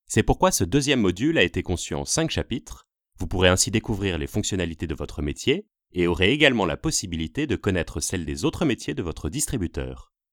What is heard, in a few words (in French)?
Extrait e-learning